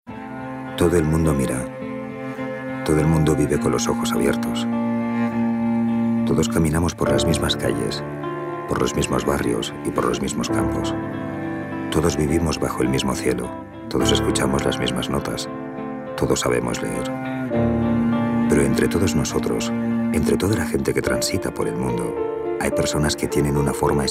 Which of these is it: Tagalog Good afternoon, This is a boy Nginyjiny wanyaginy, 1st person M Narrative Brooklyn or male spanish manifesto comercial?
male spanish manifesto comercial